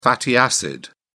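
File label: "fatty acid", British English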